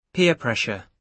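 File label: "peer pressure", British English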